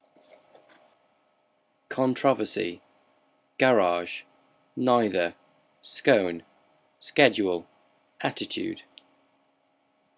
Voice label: Harrogate male